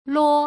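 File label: "luo", Putonghua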